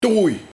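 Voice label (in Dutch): "Toeij", dialect; Lelijke kwade vrouw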